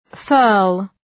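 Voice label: "furl", English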